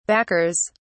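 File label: "backers", British English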